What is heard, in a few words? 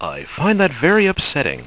upsetting; Spades Deluxe 1.6